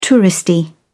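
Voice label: "touristy", British English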